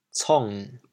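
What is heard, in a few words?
"cong3", Teochew, chaoyang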